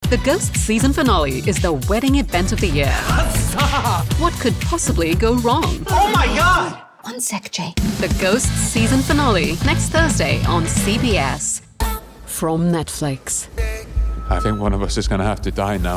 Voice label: hindi promos; Worldly, intelligent. British-Indian accent. Delightful!